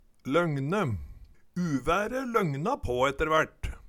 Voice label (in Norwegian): longne, Numedalsmål